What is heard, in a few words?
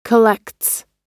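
"collects", American English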